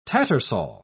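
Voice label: "tattersall", English word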